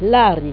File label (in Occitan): "lari", gasconha